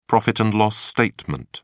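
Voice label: "profit-and-loss statement", British English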